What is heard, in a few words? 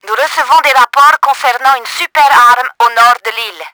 BIG GUN, french; Battle Engine Aquila; XBOX